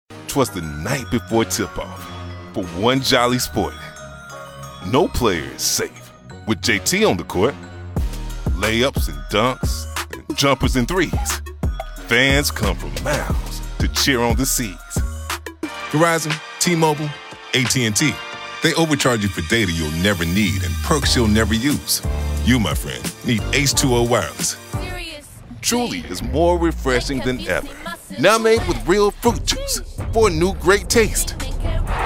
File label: Commercial